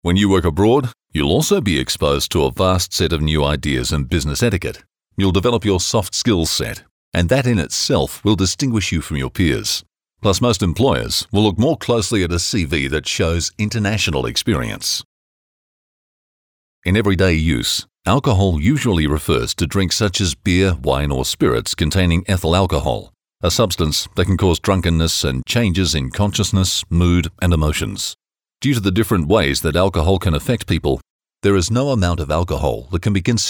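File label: male narration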